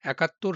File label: Male